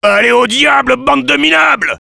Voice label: sniper taunts, fr; Réponses du Sniper

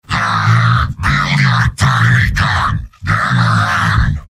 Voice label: Heavy taunts

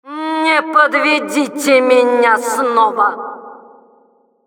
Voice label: Announcer do not fail again ru

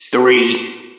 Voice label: Intercom countdown 3; Three.

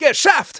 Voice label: scout cheers, de

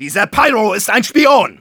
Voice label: cloaked spy identify, de; Engineer

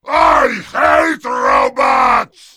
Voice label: Heavy rage; I hate robots!

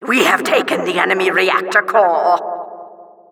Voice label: announcer capture flag team stolen

